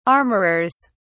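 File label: "armorers", British English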